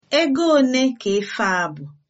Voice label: how much, igbo